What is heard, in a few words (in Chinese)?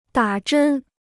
"打针", Chinese